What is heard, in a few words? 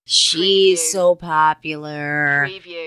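Female She So Popular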